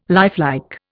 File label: "lifelike", Indian